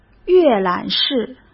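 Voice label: "阅览室", Mandarin Chinese; hsk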